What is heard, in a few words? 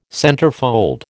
"centerfold", American